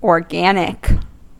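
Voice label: "organic", US English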